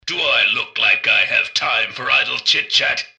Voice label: generic robot greeting; mrgutsy; npc

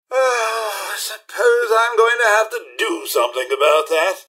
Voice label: generic robot murder; mrhandy; npc

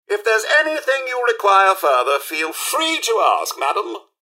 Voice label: robots dialog goodbye; mrhandy; npc

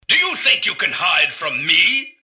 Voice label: generic robot alert idle; mrgutsy; npc

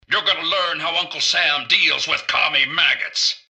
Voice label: generic robot alert to combat; mrgutsy; npc

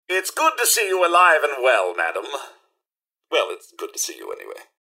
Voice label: robots dialog hello; mrhandy; npc

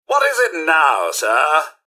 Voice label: generic robot greeting; mrhandy; npc

